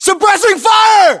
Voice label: order action suppress, US